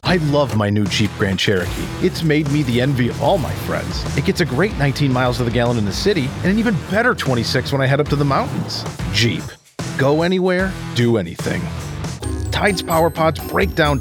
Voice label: Commercial